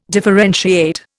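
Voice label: "differentiate", Indian English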